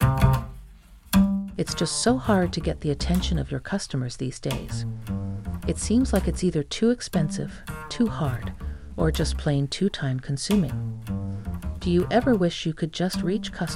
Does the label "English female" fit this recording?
No